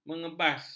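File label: "mengebas", Malay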